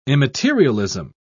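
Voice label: "immaterialism", British English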